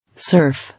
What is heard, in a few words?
"Serf", English